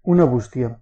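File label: mailbox desc, Catalan; tuxpaint-stamps; town; usps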